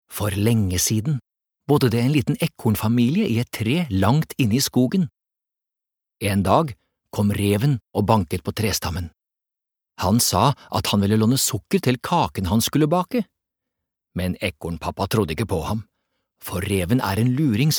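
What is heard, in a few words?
Norwegian childrens book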